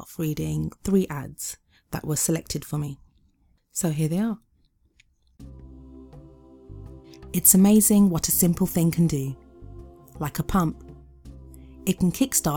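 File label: Female, Practicing Adverts for Voiceover Work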